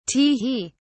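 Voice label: "tee hee", British English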